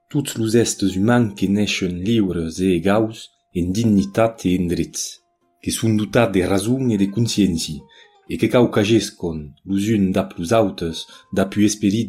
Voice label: Gascon, Gascon is a variety of Occitan spoken mainly in southwestern France and northeastern Spain.; Gascon is an Occitano-Romance language spoken mainly in regions of Gascony and Béarn